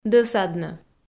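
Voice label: "дӧсаднӧ", Komi, female; kpv audio dict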